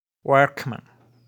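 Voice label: "Workman", pronunciation; Profesiones; professions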